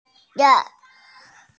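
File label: "da4yue1", Mandarin Chinese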